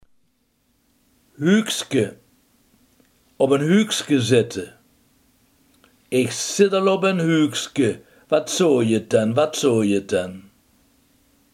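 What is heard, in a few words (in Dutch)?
hurkzit, Limburgse gesproken woordenboeken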